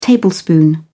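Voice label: "tablespoon", British English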